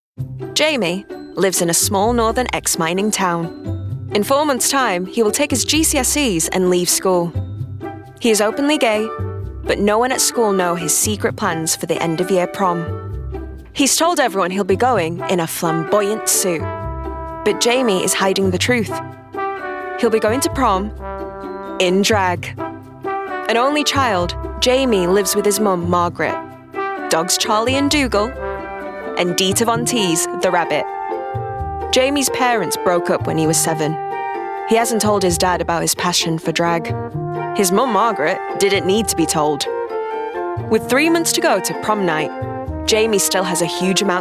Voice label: doco